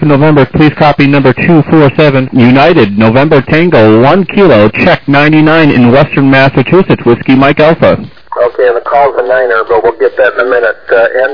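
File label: upper sideband 14MHz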